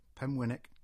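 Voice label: "Penwinnick", English; place names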